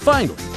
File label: finally announcer